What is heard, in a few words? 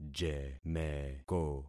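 "jemeko", concatenated syllables; Affixing experiment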